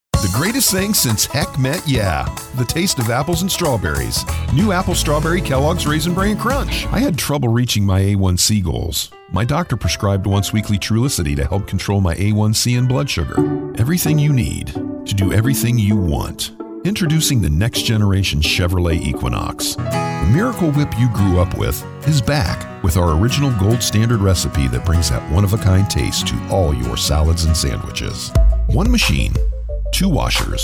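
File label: National Commercial; Voice Realm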